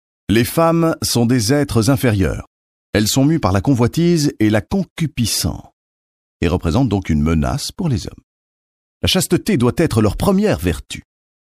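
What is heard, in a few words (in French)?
Belgian French, male, narration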